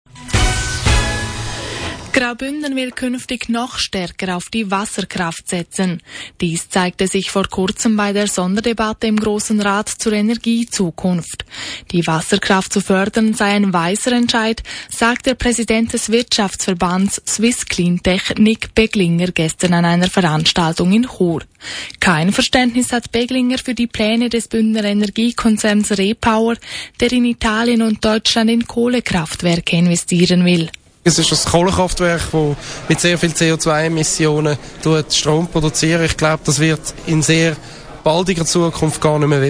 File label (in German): Nachrichten Kurzbeitrag, radio; Radio Grischa